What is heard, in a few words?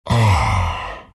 treant happy